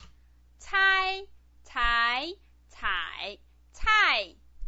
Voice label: "cai", pinyin